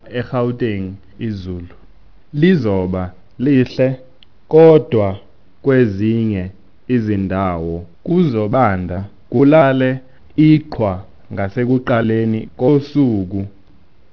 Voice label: zulu weather